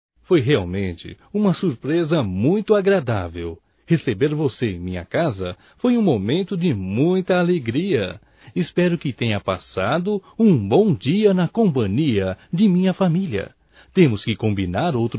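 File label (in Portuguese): Agradecimento pela visita male, Só Voz; Telemensagens; AGRADECIMENTOS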